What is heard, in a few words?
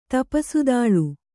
"ತಪಸುದಾಳ್", Kannada